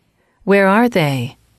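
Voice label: "where are they", English, female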